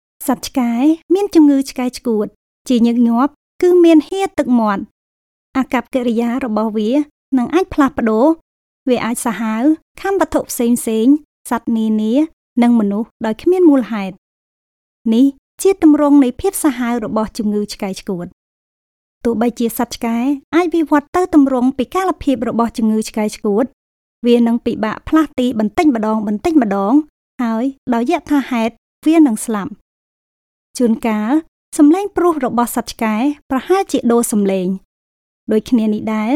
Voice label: Khmer Female Voice Sample